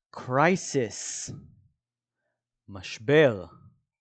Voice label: "crisis" – "משבר"

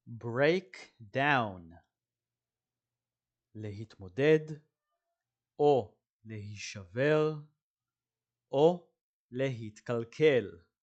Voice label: "break down" – "להתמודד להישבר להתקלקל"

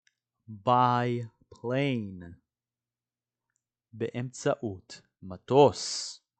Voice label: "by plane" – "באמצעות מטוס"

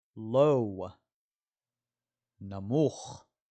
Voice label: "low" – "נמוך"

